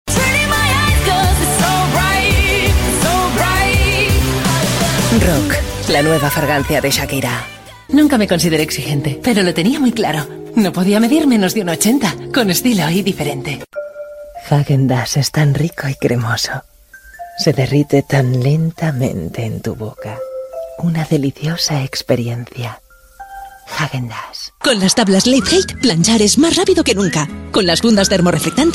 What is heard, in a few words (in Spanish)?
Femenino